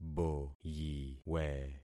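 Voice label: "boyiwe", concatenated syllables; Affixing experiment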